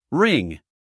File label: "ring", US male; everyone-can-use-english